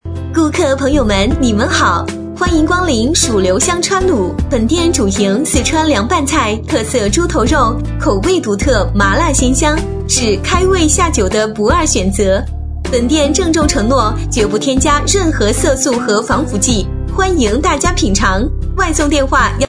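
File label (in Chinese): 女声川卤美食类; 蜀留香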